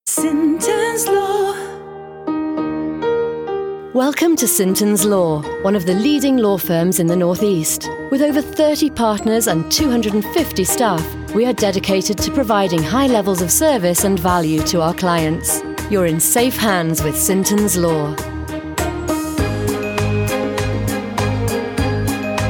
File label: telephone messaging, IVR and on hold